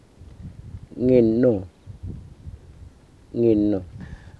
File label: "ngirnu", Murrinh-Patha